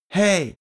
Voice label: male teen hey

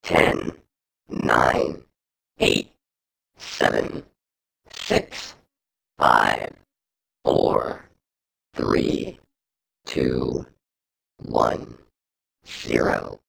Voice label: computer human voice

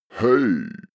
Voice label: male hey low

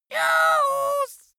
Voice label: dwarf scream news, voices

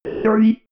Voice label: robot voice three

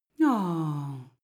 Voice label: female comfort; koerpergeraeusche

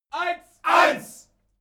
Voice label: military shout german one

